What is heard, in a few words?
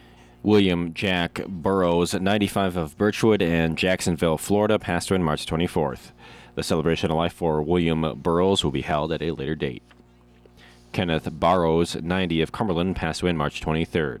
Funeral Announcements